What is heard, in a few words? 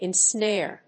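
"ensnare", English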